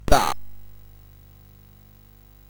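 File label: Bouncy Balls speech, MOS 8580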